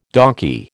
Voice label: "donkey", American

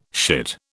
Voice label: "shit", British

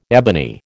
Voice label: "ebony", American

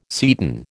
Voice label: "seton", American

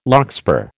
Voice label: "larkspur", American

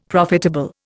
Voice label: "profitable", Indian; Dictionary

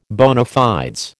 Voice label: "bona fides", American

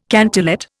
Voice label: "cantillate", Indian

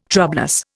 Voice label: "troublous", Indian

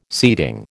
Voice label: "seating", American

